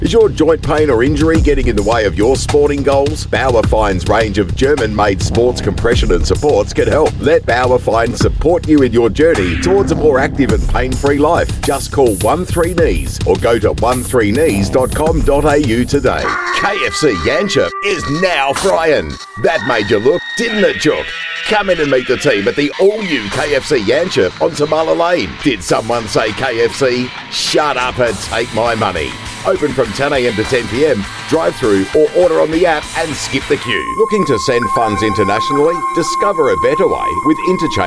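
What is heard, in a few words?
AU English Commercial, Australian English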